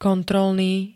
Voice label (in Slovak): "kontrolný", Slovenské